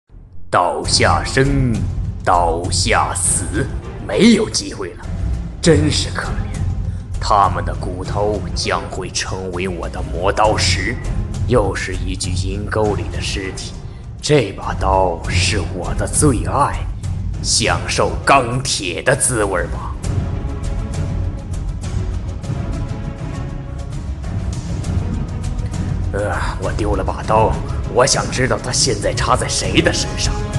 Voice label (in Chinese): 游戏角色 男; 男刀